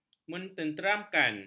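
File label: "menenteramkan", Malay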